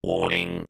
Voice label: science fiction robot says warning

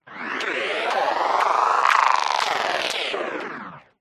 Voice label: science fiction robot glitch voice

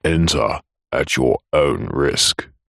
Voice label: human male voice says enter at your own risk

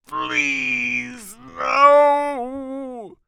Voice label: human voice male in peril says please no; zapsplat

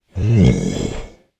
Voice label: fantasy giant male inquisitive ohh; zapsplat

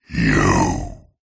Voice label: horror evil demonic voice male says "you"

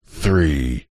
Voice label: radio prod male voice says three processed

